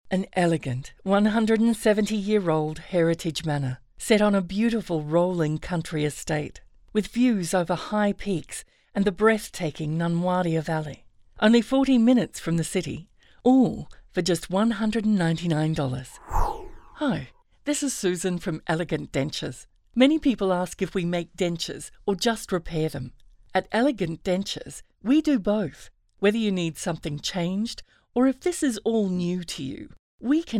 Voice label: Warm Retail